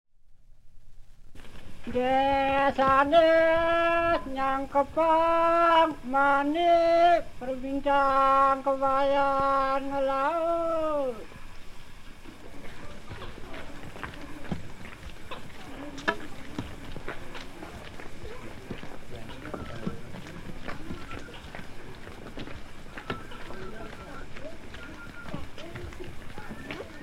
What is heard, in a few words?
recitation; Asak; Ngauk; 1972